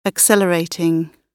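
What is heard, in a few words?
"accelerating", British English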